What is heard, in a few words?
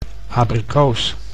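"abrikoos", Dutch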